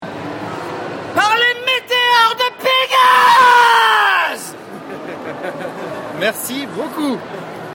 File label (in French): dedicace vocale